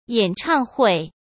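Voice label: "演唱会", Mandarin Chinese; chinese flashcards; hsk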